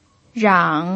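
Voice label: "rang", third tone, Mandarin Chinese pinyin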